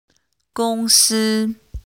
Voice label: Chinese pronunciation zi ci si